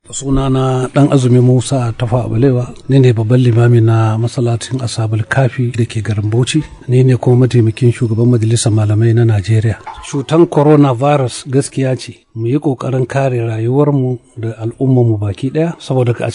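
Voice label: BAUCHI MALE ISLAMIC RELIGIOUS LEADER Hausa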